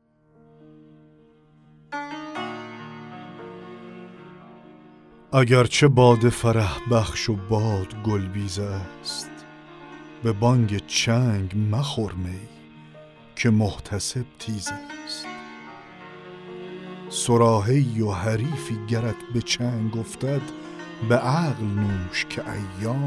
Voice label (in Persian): دکلمه غزل حافظ; غزل 41 حافظ; اگر چه باده فرحبخش و باد گلبیز است